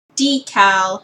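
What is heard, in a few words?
"decal", Canadian English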